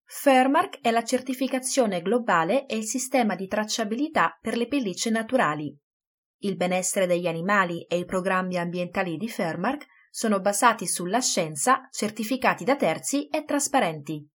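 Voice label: Italian, Corporate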